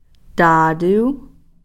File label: "taatuu", good sounding